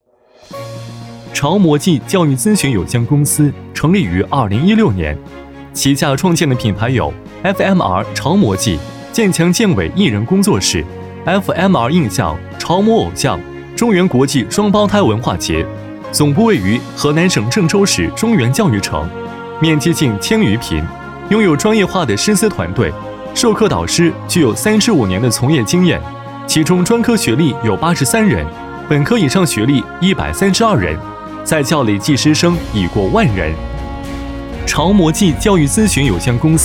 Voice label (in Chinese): 男 宣传 年轻时尚; 潮模记教育咨询有限公司